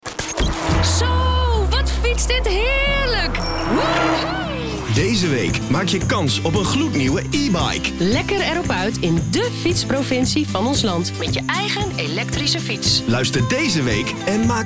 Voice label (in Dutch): PROMO EBIKE ACTIE; ZBA